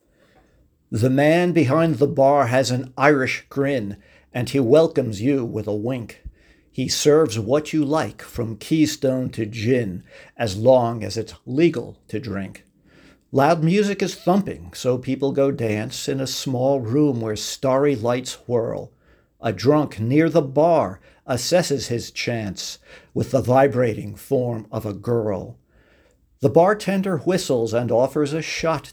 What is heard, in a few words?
Poem